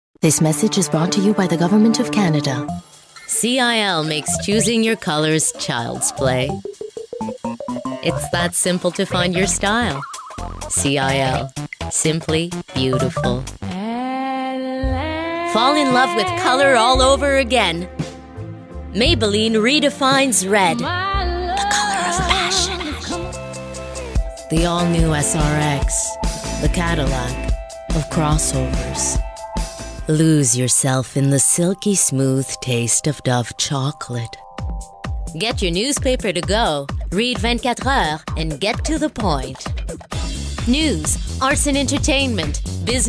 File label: commercials